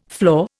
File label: "floor", Indian